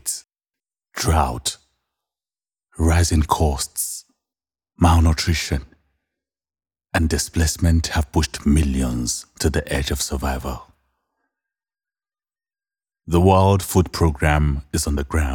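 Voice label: African English, male, HORN OF AFRICA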